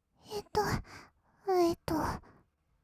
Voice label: えっとえっと, kawaii voice; ASMR; 色々なワンショット

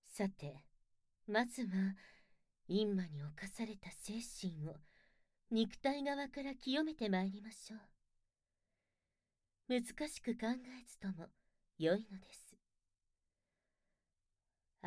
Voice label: お清めマッサージ（効果音なし）, ASMR

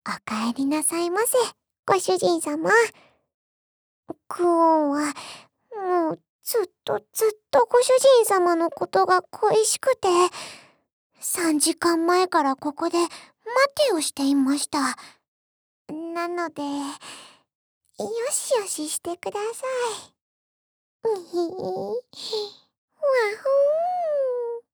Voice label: お帰りなさいませ。, 癒し ボイス; 日常で使えるくおんとのイチャイチャの癒しボイス

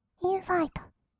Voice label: "インファイト", kawaii voice; ASMR; 1200 kawaii voice!!; 音ゲー

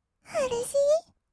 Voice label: "うれしいー", kawaii voice; ASMR; 色々なワンショット

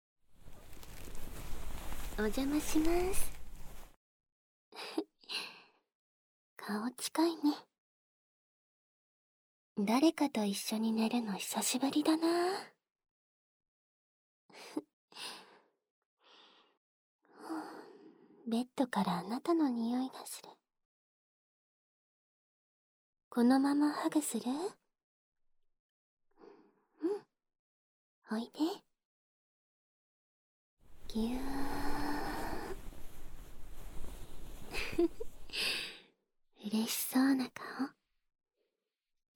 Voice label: 添い寝ささやき手コキ, ASMR

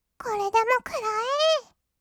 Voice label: "これでもくらえー", kawaii voice; ASMR; 色々なワンショット

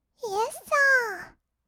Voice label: "イェッサー", kawaii voice; ASMR; 色々なワンショット

